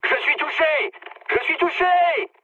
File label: aircraft destroyed mood med, speech fr